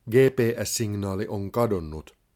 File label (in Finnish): GPS lost, Finnish, male; Opas